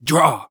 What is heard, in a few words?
Human Cowboy Say "Draw"